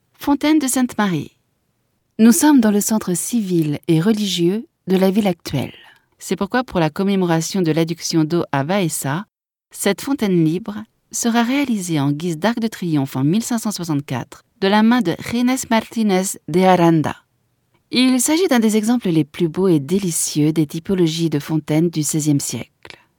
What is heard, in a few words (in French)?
audioguia, frances; baeza